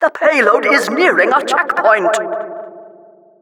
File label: Announcer cart defender warning